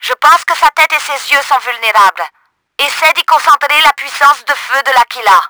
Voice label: SHOOT HEAD, french; Battle Engine Aquila; XBOX